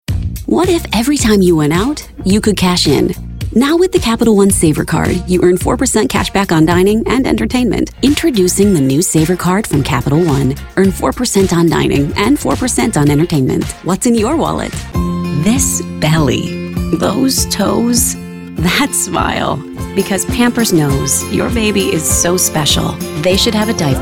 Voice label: Commercial Demo